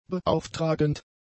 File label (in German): "beauftragend", German; adjectives